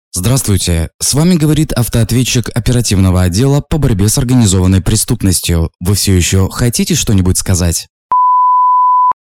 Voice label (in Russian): Автоответчик; ОБОП